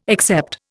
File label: "except", Indian